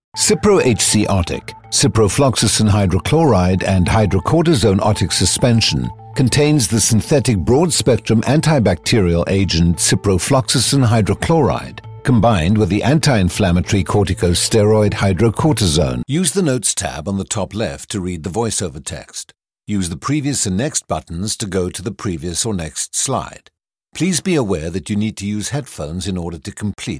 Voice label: Elearning